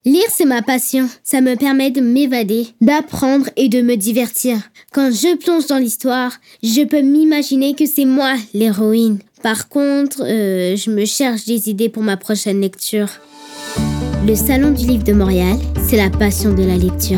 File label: vocal FR; Salon du Livre